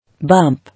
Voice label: "Bump", English